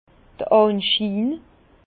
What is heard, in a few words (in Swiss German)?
"anschiin", Bas Rhin; vin; l’apparence